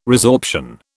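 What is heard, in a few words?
"resorption", British English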